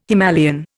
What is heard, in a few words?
"Himalayan", Indian